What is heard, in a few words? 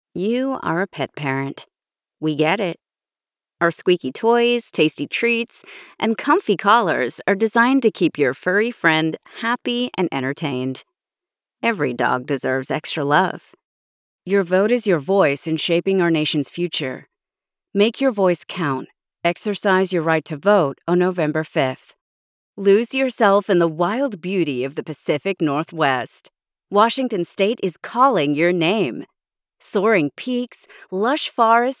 VO Commercial US; australian voice over